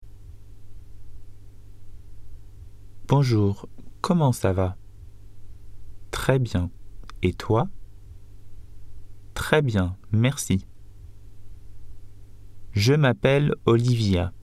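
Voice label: KS2 Speaking Conversation Performance French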